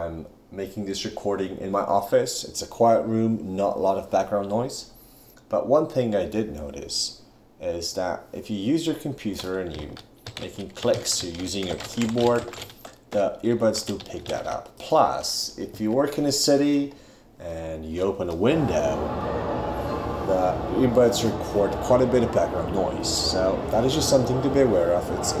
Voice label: Samsung Galaxy Buds microphone test